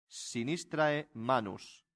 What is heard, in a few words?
"sinistrae manus", latin; santillana